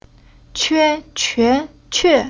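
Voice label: "Que", Chinese syllable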